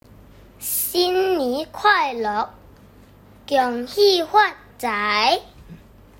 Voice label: Hokkien, 福建话